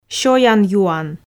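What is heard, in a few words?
"сёян-юан" Komi, female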